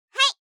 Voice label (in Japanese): "はい！", 相づち・反応系【ポジティブ】